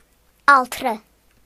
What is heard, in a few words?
nurse male; gcompris